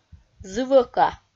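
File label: "зывӧка", Komi, female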